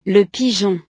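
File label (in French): "le pigeon", French; la paloma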